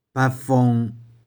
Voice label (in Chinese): "beh4fung1", 玉環